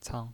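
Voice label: "cang", Mandarin Chinese, neutral tone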